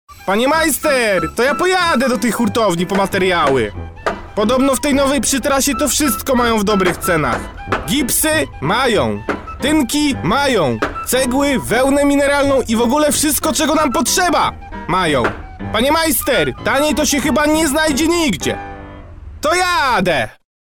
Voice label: male polish voice over talent sales